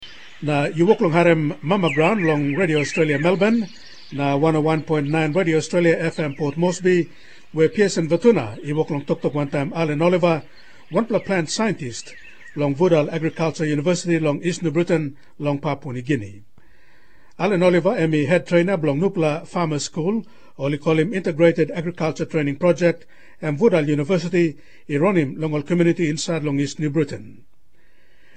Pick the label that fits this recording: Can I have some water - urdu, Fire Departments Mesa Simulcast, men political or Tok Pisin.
Tok Pisin